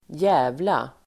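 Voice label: "djävla", Swedish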